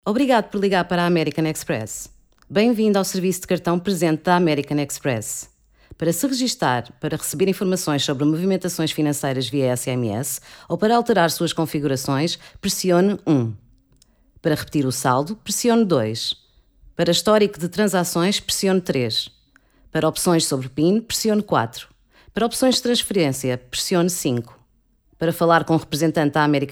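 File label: Portuguese European IVR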